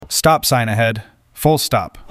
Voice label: Male Stop Sign Ahead

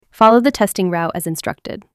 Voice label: Female Stay On Route

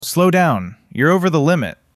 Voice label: Male Slow Down

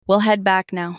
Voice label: Female We Will Head Back Now

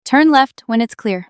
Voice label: Female Turn Left When Clear

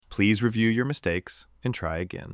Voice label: Male Review Mistakes

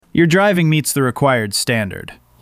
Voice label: Male Passed

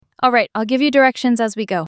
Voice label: Female Give Directions

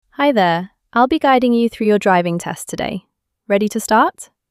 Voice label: Female Hello

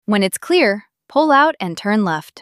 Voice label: Female Pull Out Left

